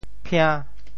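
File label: "phia~5", Teochew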